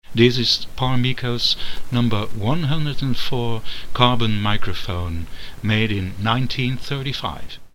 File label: Parmeko 104 carbon